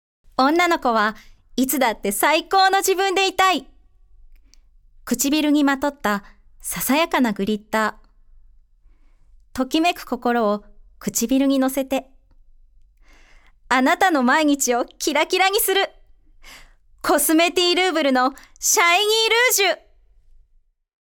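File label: narration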